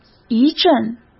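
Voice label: "一阵", Mandarin Chinese; chinese-flashcards; hsk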